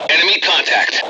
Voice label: detect generic, Voice English

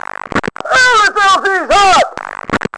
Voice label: chat

